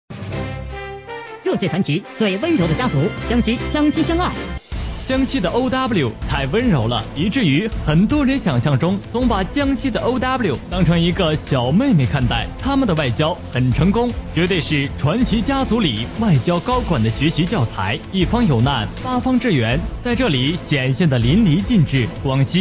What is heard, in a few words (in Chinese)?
男 自媒体; 传奇家族